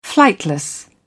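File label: "flightless", British English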